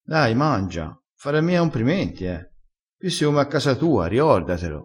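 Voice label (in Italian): "fa omprimenti", vocabolario dialettale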